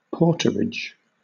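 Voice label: "porterage", British English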